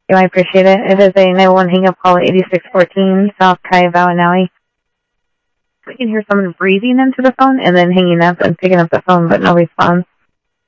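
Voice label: Sheriff East County, scanner audio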